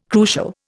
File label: "crucial", Indian